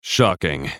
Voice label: spellcast effort; Jayce